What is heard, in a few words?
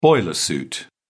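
"boiler suit", British English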